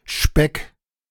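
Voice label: "Speck", German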